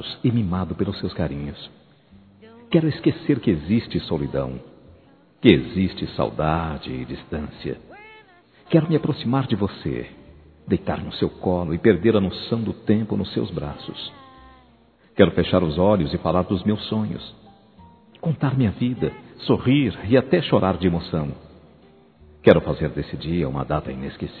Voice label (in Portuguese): Convite Para Sair Masculino; Telemensagens; Convites e Agradecimentos; Telemensagens 2017